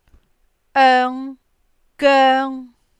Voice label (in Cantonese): oeng goeng1, cantonese